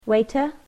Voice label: "Waiter", English

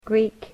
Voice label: Greek

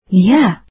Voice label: "why", azeri

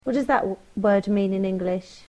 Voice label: "What does that word mean in English", English

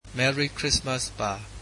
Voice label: Merry Christmas, burmese

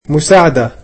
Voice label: "Help", egyptian

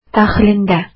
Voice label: inside, azeri

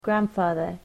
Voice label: "grandfather", English

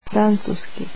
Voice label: "French", croatian

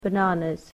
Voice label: "bananas", English

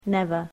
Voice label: "never", english

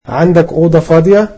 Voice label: Do you have rooms available, egyptian

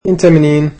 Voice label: "Where are you from", egyptian

